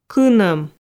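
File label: "кыном", Komi, female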